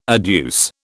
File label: "adduce", British